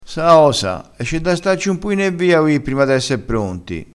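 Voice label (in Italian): "sa osa", vocabolario dialettale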